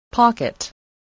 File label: "pocket", English